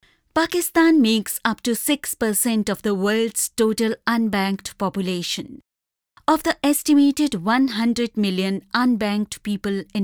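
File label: Hindi, accented English; GoLocalise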